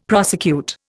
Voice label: "prosecute", Indian; Dictionary